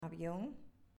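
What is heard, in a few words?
"Avion", Spanish, female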